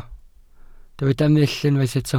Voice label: "mull", Welsh